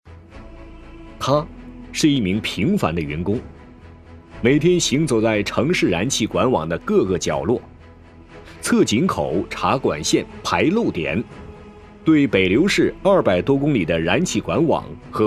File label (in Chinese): 企业人物介绍